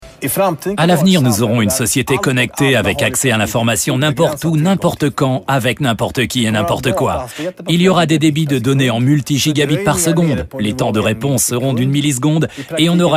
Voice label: VOICE OVER; EPO

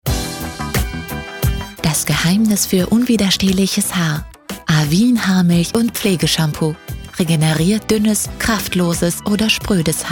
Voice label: Spot

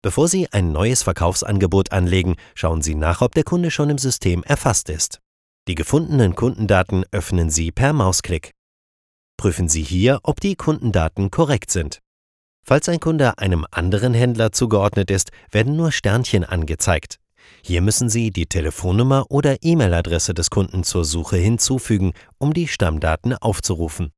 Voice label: E-Learning IT; Toyota

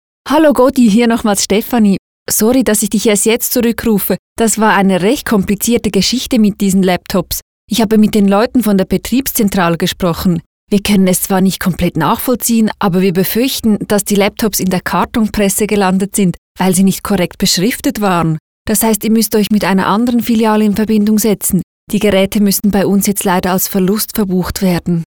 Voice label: eLearning; Migros

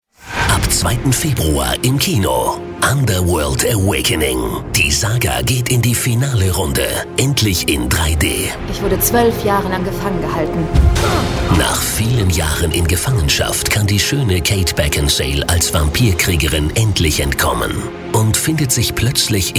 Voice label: Trailer

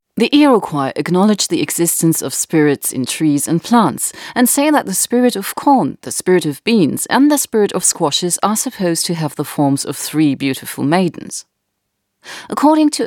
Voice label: British English

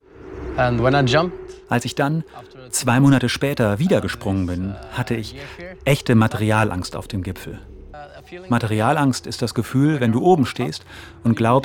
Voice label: Voice Over

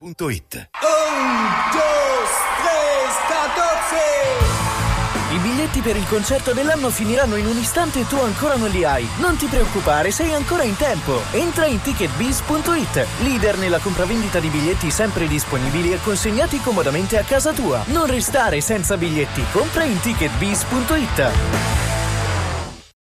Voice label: spot; ticketbis; rmc

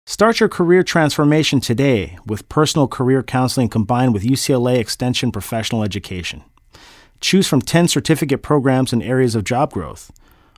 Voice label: Adult Male Commercial; UCLA Extension Professional Education; AFTRA

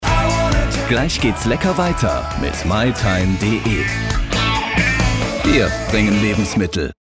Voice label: presenter; mytime

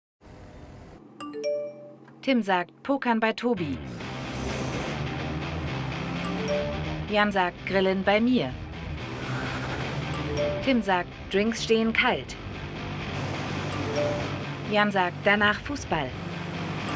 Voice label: german; Toyota AYGO

